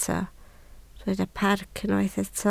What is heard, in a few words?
"parc", Welsh